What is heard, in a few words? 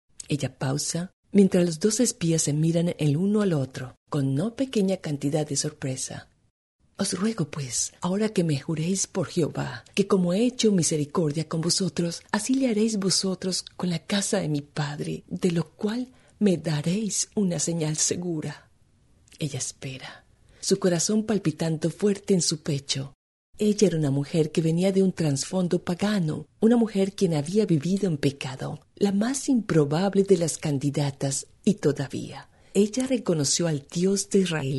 spanish latin american audiobooks